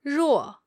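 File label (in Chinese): "ruo", Mandarin Chinese, female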